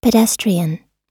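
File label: "pedestrian", American English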